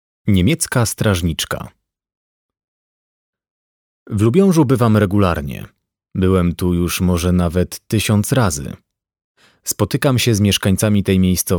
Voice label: Audiobook